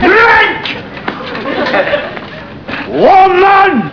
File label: woman